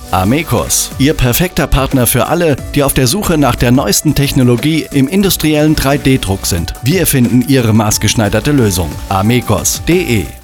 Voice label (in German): Funkspot; amecos GmbH